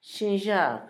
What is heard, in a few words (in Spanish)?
nieto, Zapoteco, Asunción Ocotlan; Humano; INALI